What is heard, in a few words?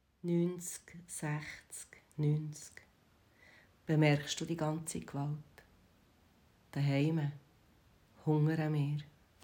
Berndütsch